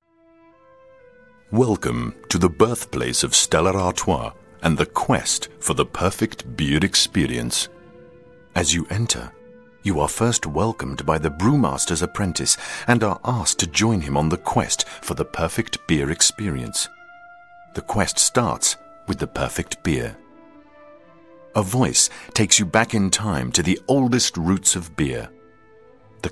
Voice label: Audioguide; Stella Artois